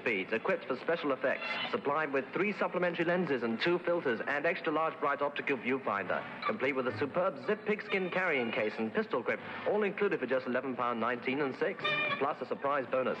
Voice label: cine camera offer advert